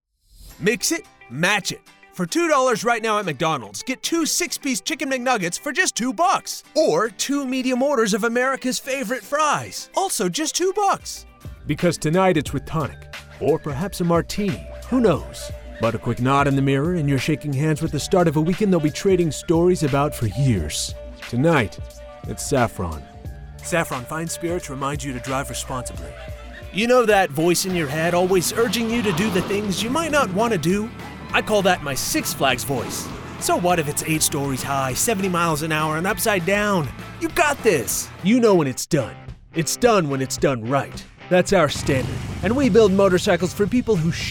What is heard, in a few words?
commercial